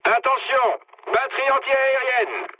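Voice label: French damaged aa mood low; War Thunder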